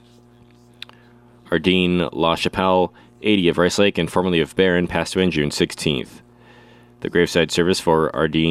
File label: Funeral Announcements